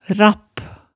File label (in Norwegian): "rapp", Hemsedal